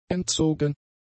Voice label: "entzogen", German; adjectives